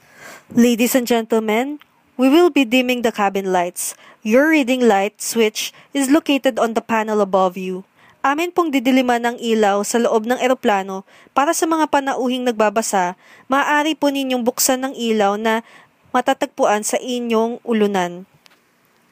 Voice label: airplane announcements

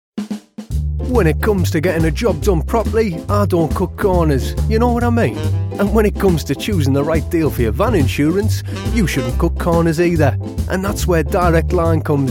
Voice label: advert; direct line

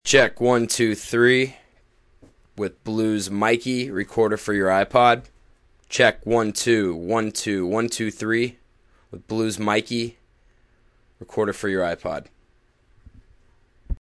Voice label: test with Blue Microphones Mikey

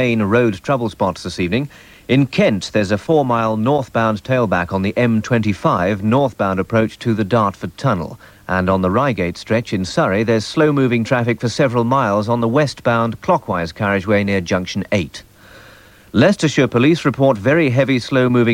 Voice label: radio 2 continuity

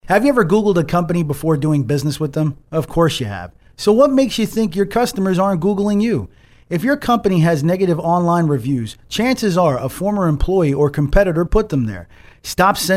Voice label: radio commercial; ntel media

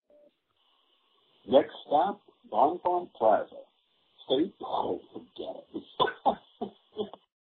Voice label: metro announcement

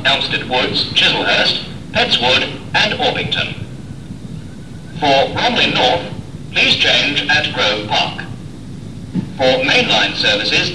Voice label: pre-recorded platform announcement; lewisham

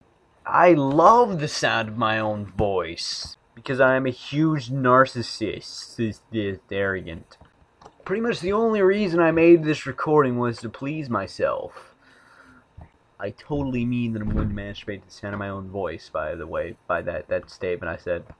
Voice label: stuttering

